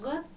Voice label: "agɔ̈th", Dinka